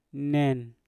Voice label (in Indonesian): "nen" kamu kalian, Mpur